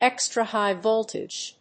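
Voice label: "extra high-voltage", English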